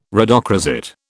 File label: "rhodochrosite", British